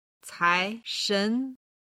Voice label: "caishen", Chinese